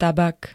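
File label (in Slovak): "tabak", Slovak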